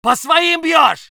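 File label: voice soviet infantry; Red Orchestra 41-45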